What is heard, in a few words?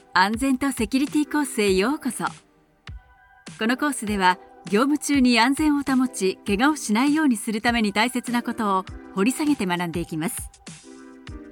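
Japanese elearning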